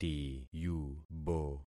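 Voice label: "tiyubo", concatenated syllables; Affixing experiment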